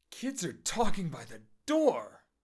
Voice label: disgust

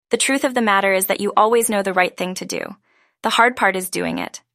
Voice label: voice Young and Soft; Bria

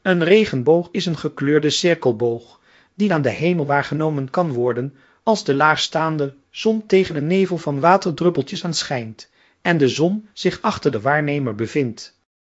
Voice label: speaker, nl NL

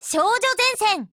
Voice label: TITLECALL JP; girlsfrontline

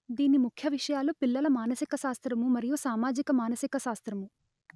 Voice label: Telugu; Meta mms ASR

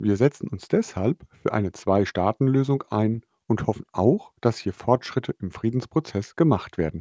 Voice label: german; COQUI-STT

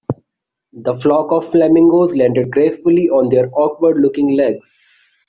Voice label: indian, accent; commonaccent

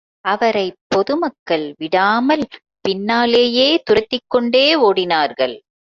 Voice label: Tamil; Meta mms ASR

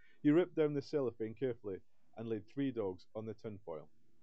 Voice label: neutral

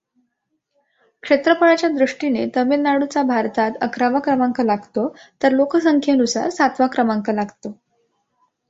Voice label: Marathi; ai4bharat indicwave2vec models

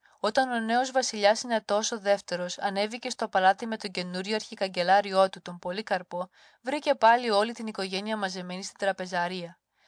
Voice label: greek

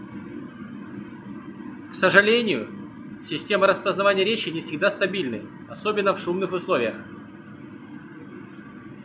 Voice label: test sound with noise

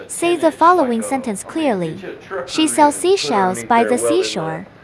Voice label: tongue twister Neighbor, noise; S2S-Arena

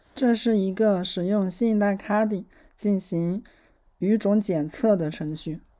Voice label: chinese

